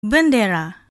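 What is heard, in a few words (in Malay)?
"bendera", Malay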